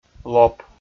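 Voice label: "лоп", Komi, male; kpv audio dict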